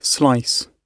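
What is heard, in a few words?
"slice", British English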